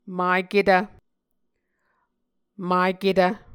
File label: "maketv", Muscogee